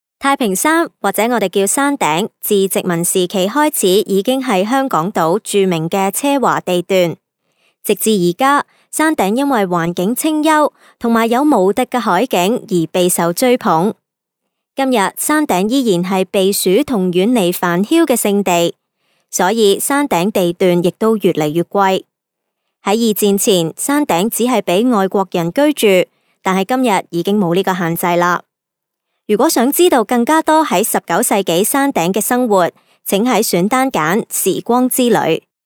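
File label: Cantonese female corporate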